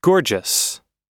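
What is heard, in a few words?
"gorgeous", English; oxford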